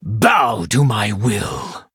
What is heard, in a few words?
Male Blood Elf Attack; en